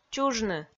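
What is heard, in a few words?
"чужны", Komi-Zyrian, female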